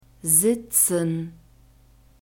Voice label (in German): "sitzen", German; verbs present tense regular